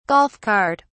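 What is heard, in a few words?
"golf cart", British English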